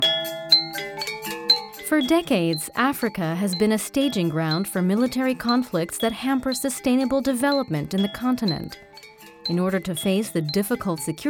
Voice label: dokumentar

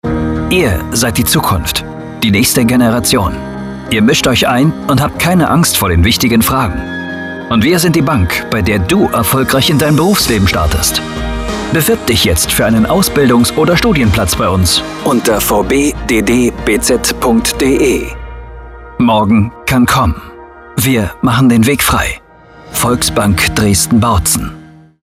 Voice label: onlinespot; vbddbz ausbildung; Muttersprache Deutsch

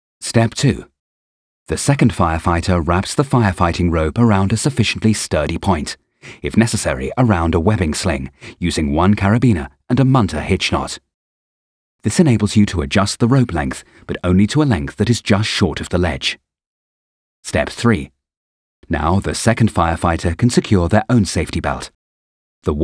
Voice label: elearning; draeger

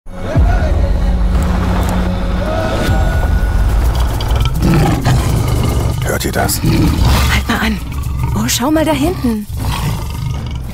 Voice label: safari werbefilm; masteroil

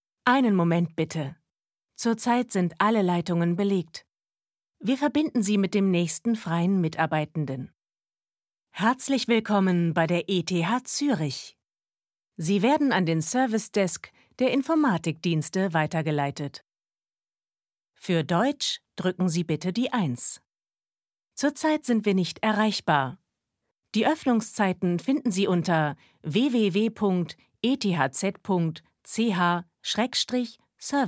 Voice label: telefonansage

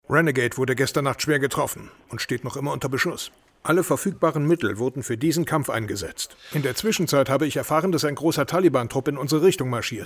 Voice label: synchron

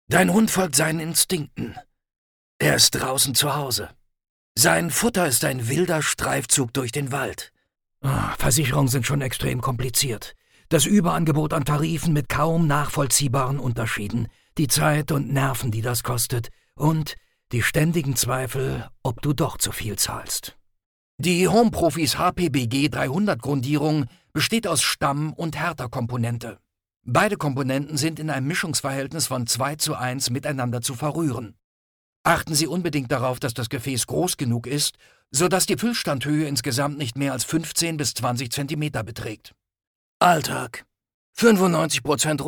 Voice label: tief knackig baumarkt

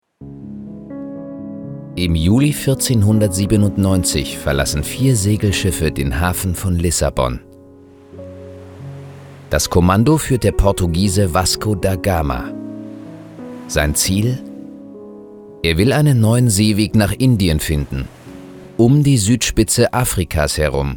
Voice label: dokumentation

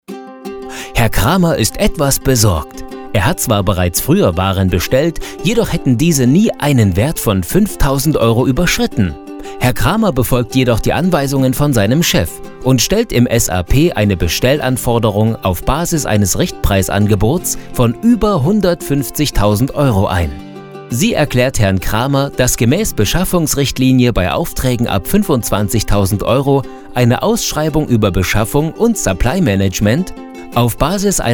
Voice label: erklaerfilm empathisch

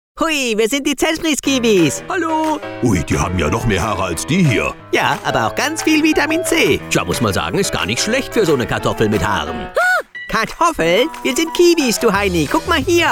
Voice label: sprecher trickstimmen

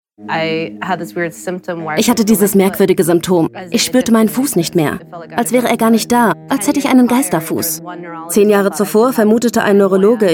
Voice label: voice over erzahlend beschreibend

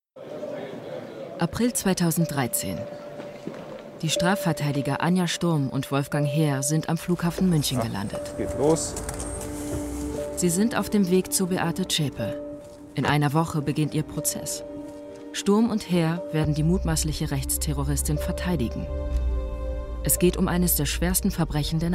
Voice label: doku; ard; heer stahl und sturm

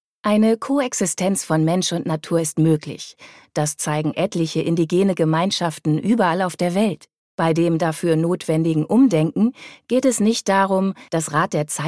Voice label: horbuch sachbuch; rewilding

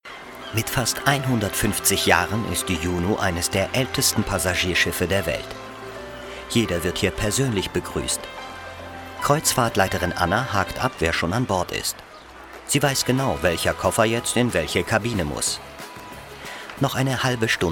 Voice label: kommentar doku schiff

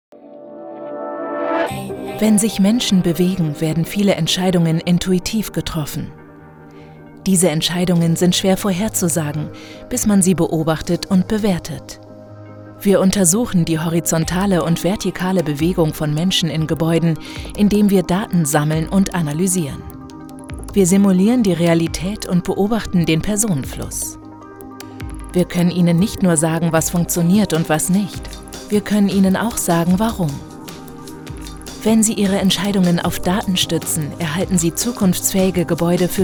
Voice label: Imagefilm; kone

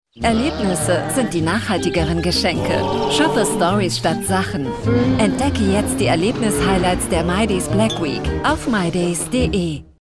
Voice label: tv spot national kampagne black week natuerlich zugewandt; mydays

